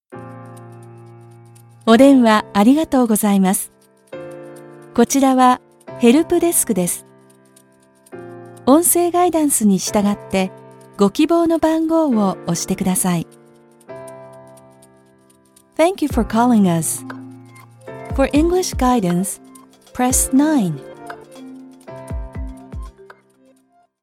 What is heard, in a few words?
Telephony